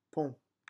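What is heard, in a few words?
"pont", French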